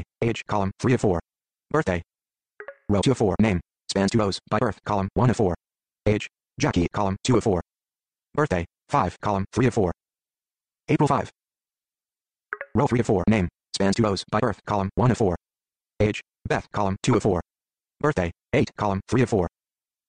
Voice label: VoiceOver complex data table headers